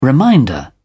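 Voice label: "reminder", British English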